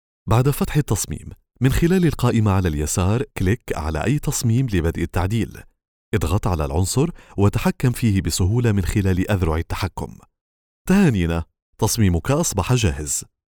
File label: e-learning